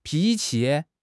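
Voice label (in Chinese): "pi2 qi5", Chinese; HSK; HSK4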